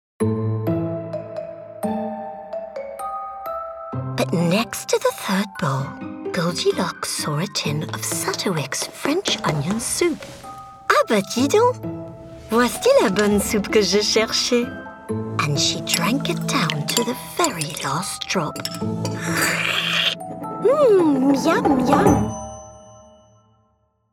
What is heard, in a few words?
English French Storybook Characters